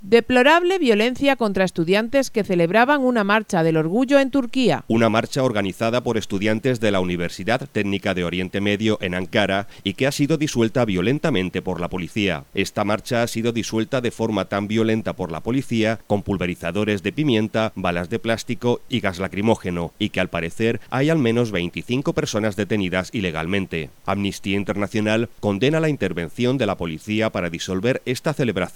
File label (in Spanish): informativo; turquia violencia contra marcha orgullo